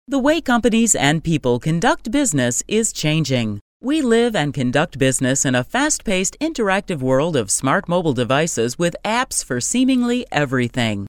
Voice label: female business presentations; english